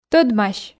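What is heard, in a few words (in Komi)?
"тӧдмась", Komi, female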